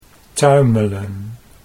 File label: "tuimelen", Dutch